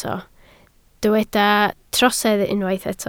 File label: "trosedd", Welsh